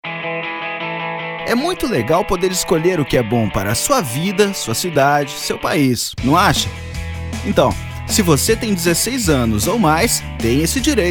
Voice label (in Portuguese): spot radio alistamento eleitoral jovem eleitor; campanha 2013